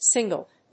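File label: "singlet", English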